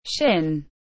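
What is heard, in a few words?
"shin", English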